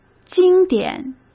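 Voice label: "经典", Mandarin Chinese; chinese flashcards; hsk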